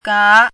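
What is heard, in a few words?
"gá", Chinese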